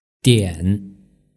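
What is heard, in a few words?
"dian", mandarin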